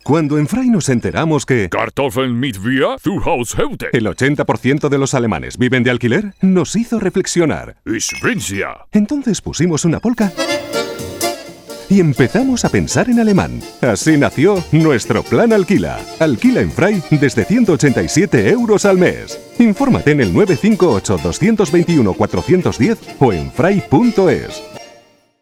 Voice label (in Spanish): cuña radio publicidad; alemanes